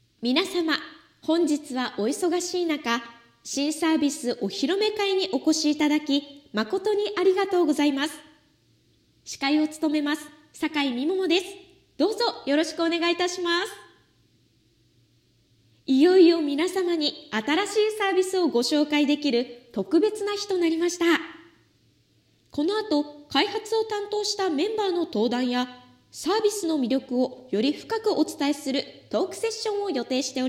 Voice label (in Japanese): イベント司会